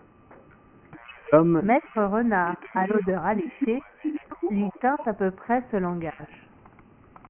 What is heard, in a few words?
long example separated french